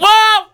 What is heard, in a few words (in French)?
FILLE